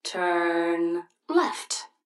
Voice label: GPS turn left; Blind Drive

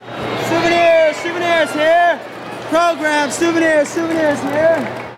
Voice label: Baseball Crowd Vendor; SFX Kit; Crowds

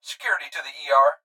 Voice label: Hospital Pa Voice; Blastwave

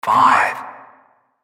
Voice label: Announcer; Pitch Black Audio Pong

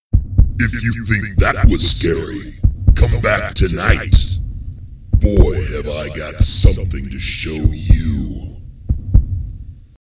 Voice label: halloween sound effects scary goodbye; Halloween Nightmare; something to show you

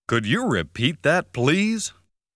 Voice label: Could You Repeat That, Please, jingles

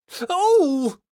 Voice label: pedestrians hit disgust; donnie; Blind Drive

